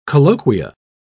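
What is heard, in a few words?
"colloquia", British English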